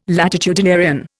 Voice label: "latitudinarian", Indian